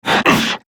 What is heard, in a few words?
Struggle, Battle Barks; Warframe; Grineer Manic